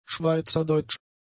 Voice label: "schweizerdeutsch", German; adjectives